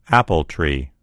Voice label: "apple tree", en US; gcompris